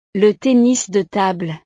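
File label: "le tennis de table", French; deportes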